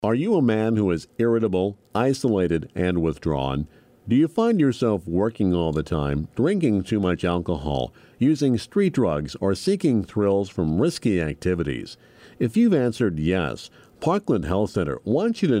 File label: male Depression